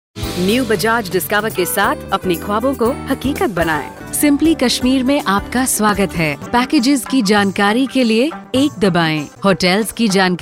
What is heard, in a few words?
Hindi